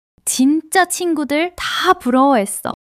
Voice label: excitement; monologue